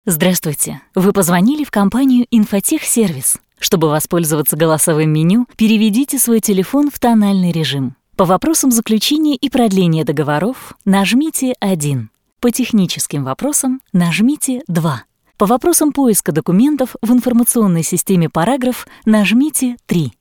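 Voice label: Russian, interactive voice response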